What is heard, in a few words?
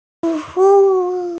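"service", hearing impaired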